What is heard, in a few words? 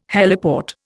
"heliport", Indian